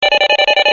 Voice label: Alien Chatter